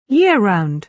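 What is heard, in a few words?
"year-round", British English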